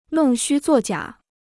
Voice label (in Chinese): "弄虚作假", Chinese